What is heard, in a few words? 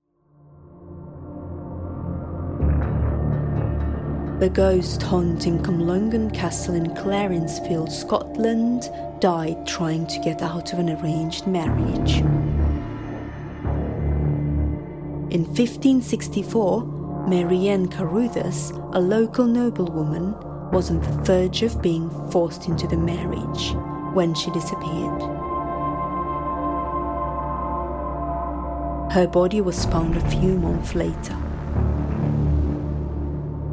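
Kazakh, English accent; GoLocalise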